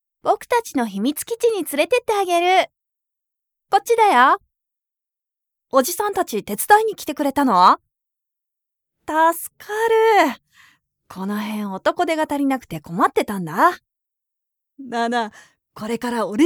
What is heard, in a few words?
japanese animation